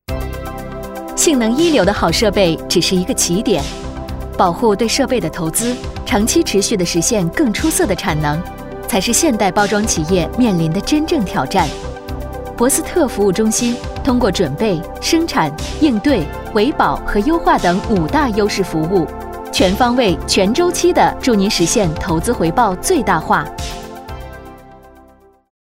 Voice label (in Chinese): 企业介绍干练飒; 博斯特服务中心